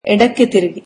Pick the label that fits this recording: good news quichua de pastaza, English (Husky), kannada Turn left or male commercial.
kannada Turn left